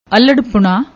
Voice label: "aularpuna", Punjabi; ਅਲ੍ਹੜਪੁਣਾ